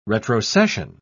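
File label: "retrocession", British English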